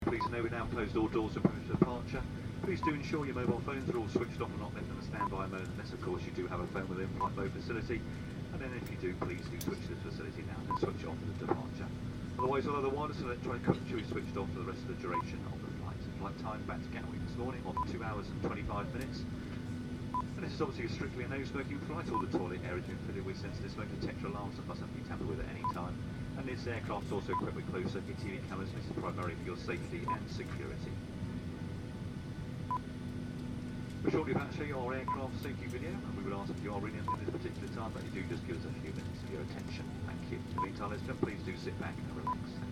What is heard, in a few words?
aeroplane announcement